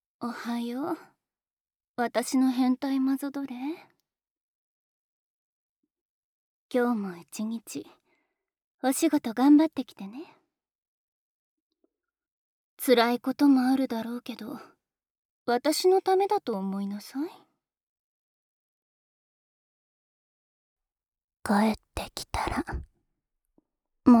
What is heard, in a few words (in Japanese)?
いってらっしゃい, ASMR; 出勤前に聴くボイス